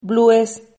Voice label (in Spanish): "blues", Silabas